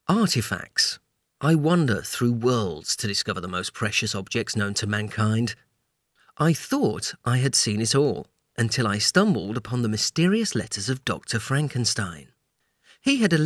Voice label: Audition Adventure Game Narrator